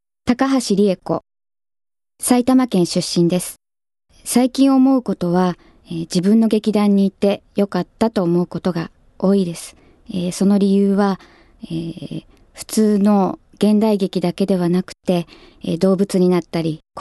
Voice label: female voice freetalk

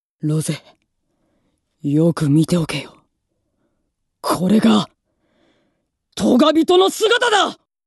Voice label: boy, female voice; セリフ

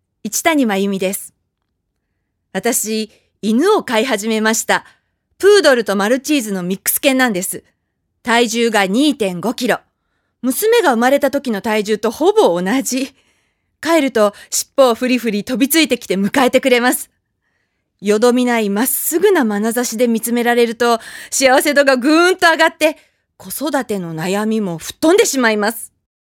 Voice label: free talk, female voice

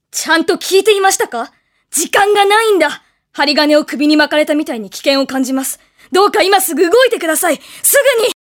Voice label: female voice

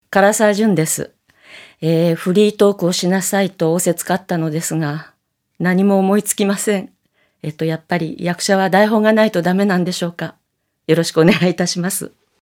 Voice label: female voice freetalk

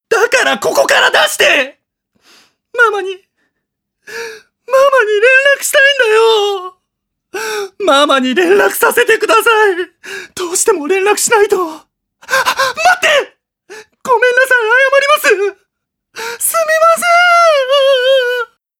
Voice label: male voice; セリフ

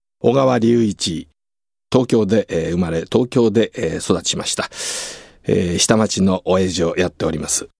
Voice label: freetalk, male voice

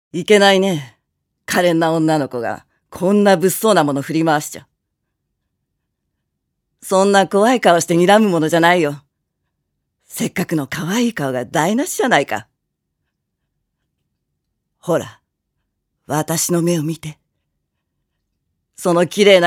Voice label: female voice

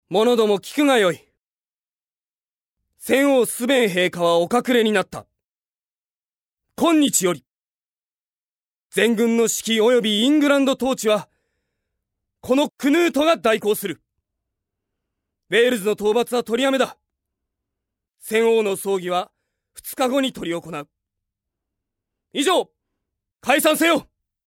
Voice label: male voice

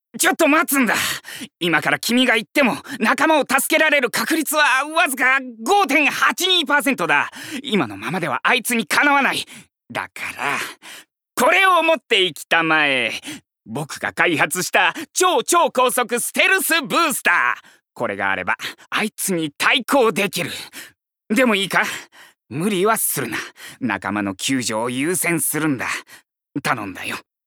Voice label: male voice

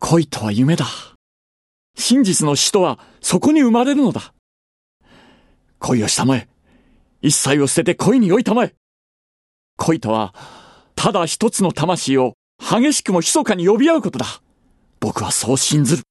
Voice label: male voice

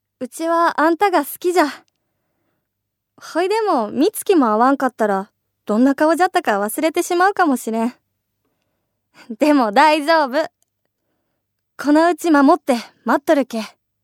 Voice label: female voice

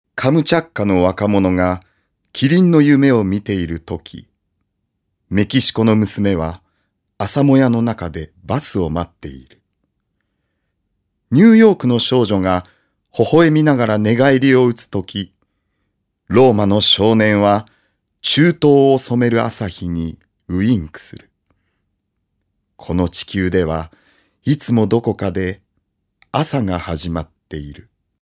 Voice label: male voice

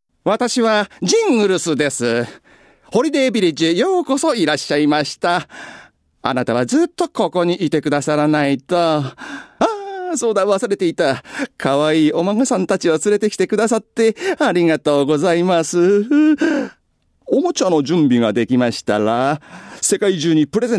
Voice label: male voice; セリフ

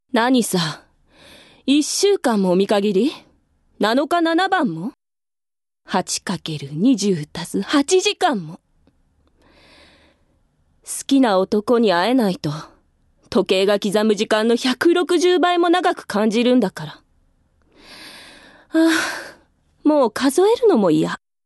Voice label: female voice

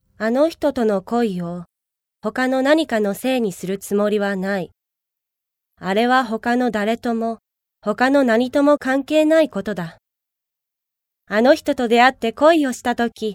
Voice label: female voice

